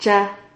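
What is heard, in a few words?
"cha", Spanish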